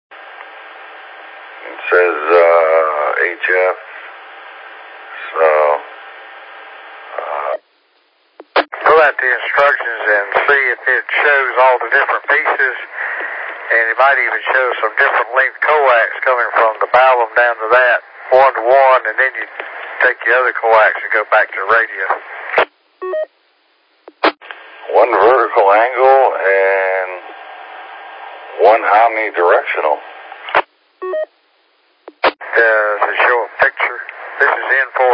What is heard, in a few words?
qso rec repeater logic